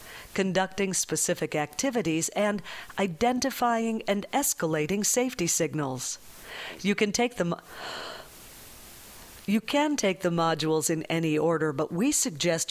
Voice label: Narration Medical Female